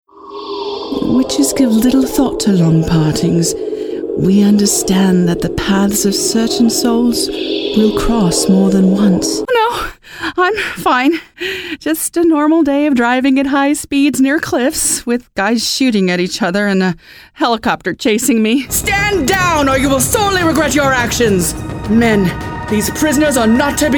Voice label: Animation